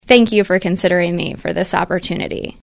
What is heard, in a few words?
female, fry